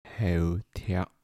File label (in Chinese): "头憡", 台城, 男